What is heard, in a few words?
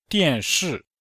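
"dian4shi4", Chinese; Cours de Chinois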